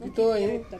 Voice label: "pitoi", Ansus